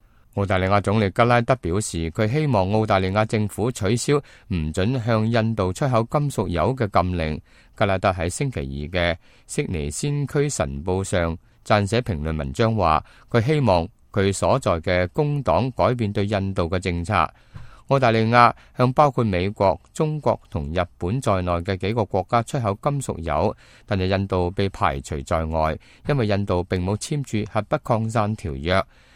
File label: cantonese, news